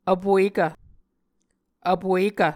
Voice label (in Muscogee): "vpuekv", Muscogee